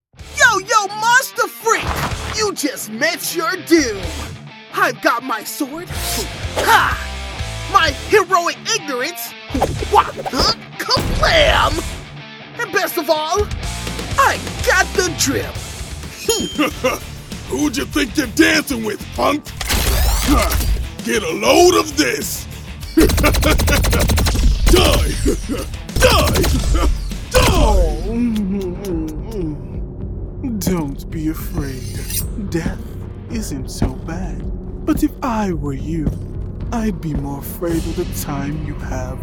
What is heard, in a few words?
Character; Character demo